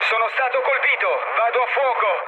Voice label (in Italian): on fire, mood low, speech it; War Thunder